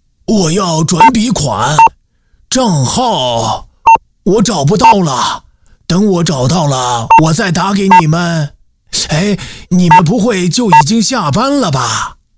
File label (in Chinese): 男 模仿 老头 剧情剧中